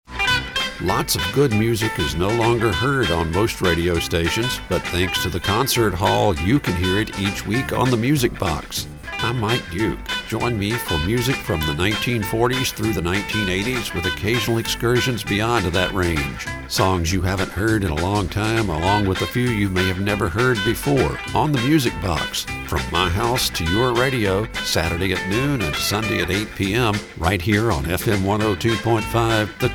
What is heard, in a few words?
generic promo; WTWZ